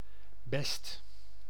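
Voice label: "best", Dutch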